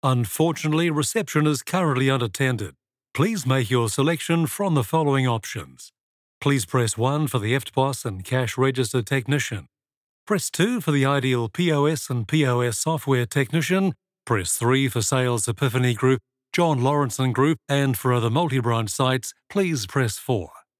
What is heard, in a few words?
NZ Male Voice Talent Phone